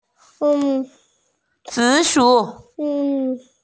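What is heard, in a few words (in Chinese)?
"zi shu", Mandarin Chinese, female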